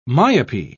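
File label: "myopy", British English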